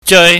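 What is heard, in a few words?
"jeui", Cantonese, high falling tone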